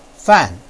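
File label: "fan", Mandarin Chinese, fourth tone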